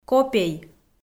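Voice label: "копей" Komi, female; audio dict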